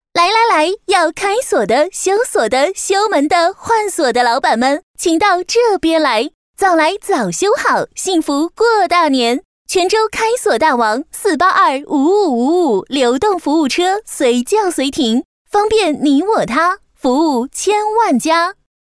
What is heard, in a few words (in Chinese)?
女 促销广告; 全州开锁大王